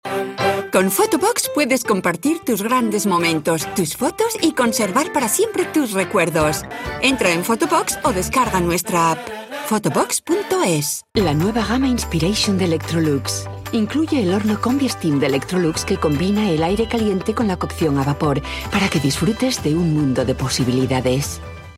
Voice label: spanish voice commercial, Female Castillian Spanish